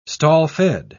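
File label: "stall-fed", British English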